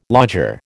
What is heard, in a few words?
"lodger", American